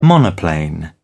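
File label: "monoplane", British English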